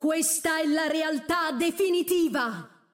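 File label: Italian; 秩序之光（守望先锋）; 终极技能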